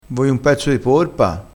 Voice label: "porpa", crespinese

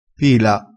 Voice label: "pila", crespinese

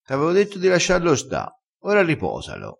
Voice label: "riposa", crespinese

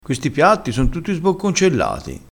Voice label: "sbocconcellato", crespinese

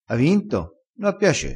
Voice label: "piace", crespinese

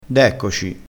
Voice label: "deccoci", crespinese

